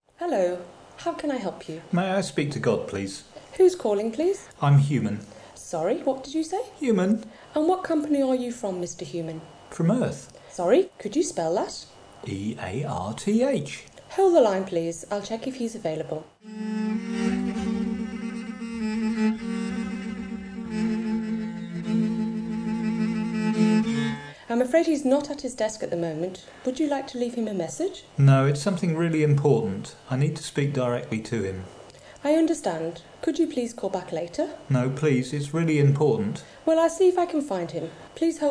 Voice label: Convers English